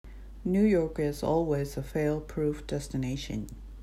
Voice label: "New York", English